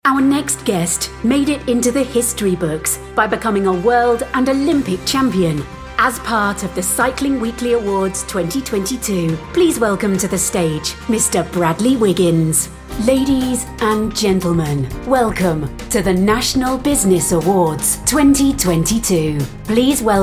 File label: english british announcements